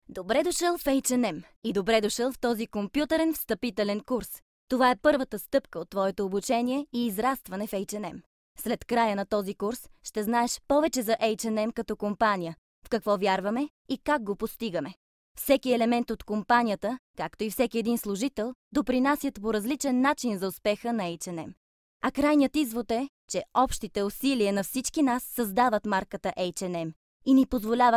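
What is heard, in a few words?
bulgarian female e-learning; voice demos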